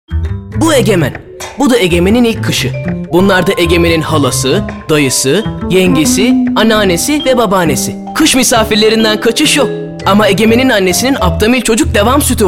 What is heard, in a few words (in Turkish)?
reklam; aptamil